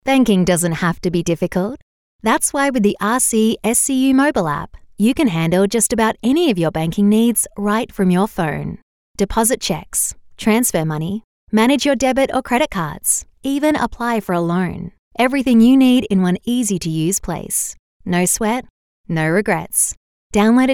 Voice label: AUS Professional

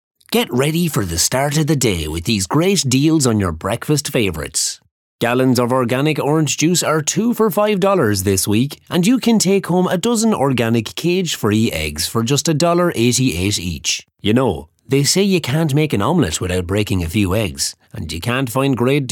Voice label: Casual Friendly

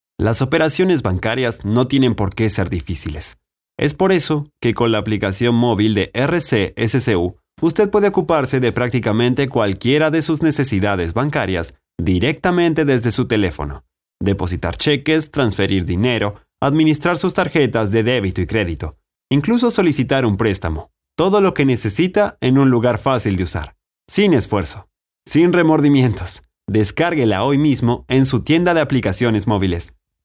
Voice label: Professional

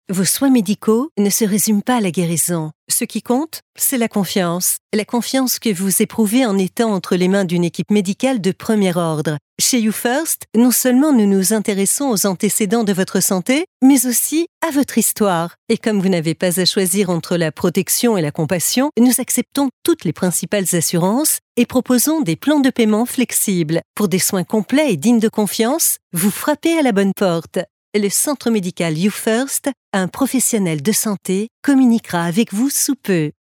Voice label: Sincere

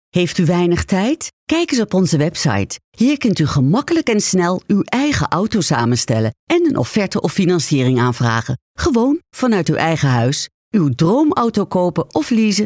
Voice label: Dutch Professional

